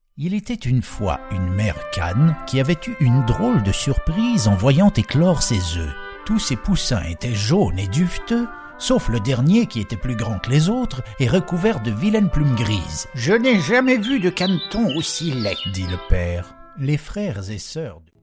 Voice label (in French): voix narration